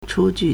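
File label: "chūjù", Chinese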